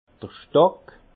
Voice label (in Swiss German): "stock", alsace